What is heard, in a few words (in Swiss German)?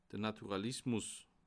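"naturalismus", Bas Rhin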